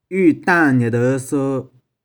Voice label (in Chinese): "iu2tang2nih4deu1so3", 玉環